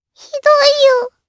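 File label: Vox Sad Japanese; Lavril; kings raid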